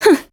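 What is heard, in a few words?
LOW MOOD, Japanese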